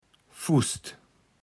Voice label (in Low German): "Fußt", Platt